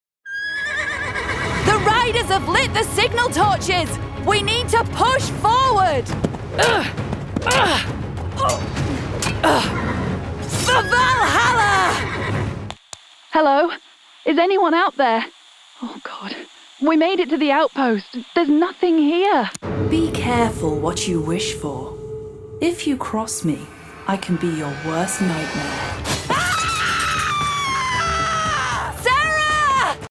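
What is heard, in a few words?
British English, female, Gaming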